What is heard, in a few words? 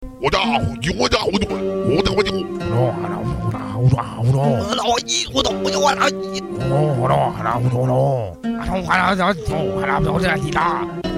chinese talk